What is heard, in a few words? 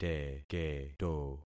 "teketo", concat syllables; Affixing experiment